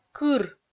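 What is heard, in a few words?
"кыр", Komi-Zyrian, female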